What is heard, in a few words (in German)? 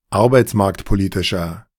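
"arbeitsmarktpolitischer", German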